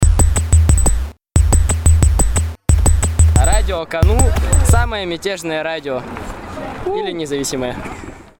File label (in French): Garçon Russe Radio